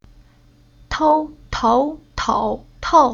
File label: "Tou", Chinese; Уроки фонетического блока